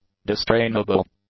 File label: "distrainable", English; adjectives